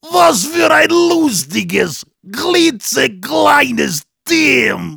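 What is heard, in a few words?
Heavy taunts, German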